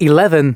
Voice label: announcements male